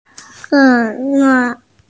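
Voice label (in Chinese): "ge2wai4", Mandarin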